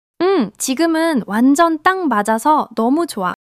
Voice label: joy; monologue